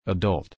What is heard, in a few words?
"adult", English